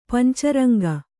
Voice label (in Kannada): "ಪಂಚರಂಗ", Kannada